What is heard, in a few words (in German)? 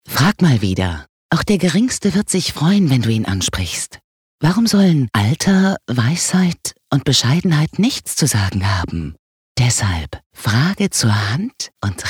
Werbung Sanft